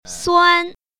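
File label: "suan1", Mandarin Chinese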